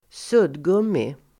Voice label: "suddgummi", Swedish

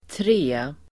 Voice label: "tre", Swedish

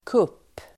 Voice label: "cup", Swedish

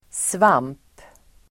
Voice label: "svamp", Swedish

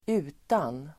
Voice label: "utan", Swedish

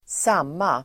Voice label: "samma", Swedish

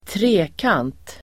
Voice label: "trekant", Swedish

